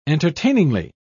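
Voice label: "entertainingly", English, British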